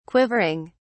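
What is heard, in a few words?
"quivering", English, uk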